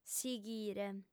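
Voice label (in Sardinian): "sighire", female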